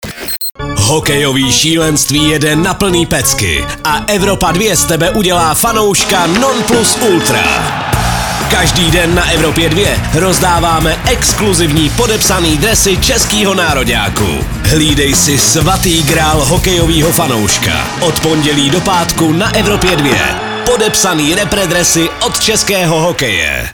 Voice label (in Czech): promo hokej soutez; Soutěžte s ČESKÝM HOKEJEM při příležitosti MS V HOKEJI 2025 o podepsané dresy české hokejové reprezentace; EVROPA 2